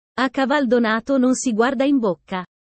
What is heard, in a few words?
italian sayings about life